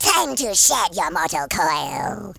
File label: watch this, speech, Horror